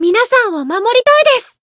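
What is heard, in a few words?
growth, Japanese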